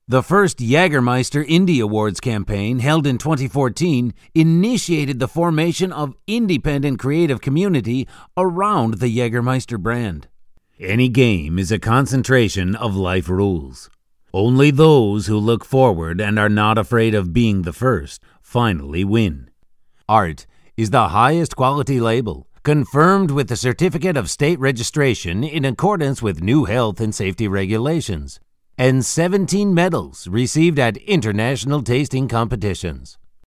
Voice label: english male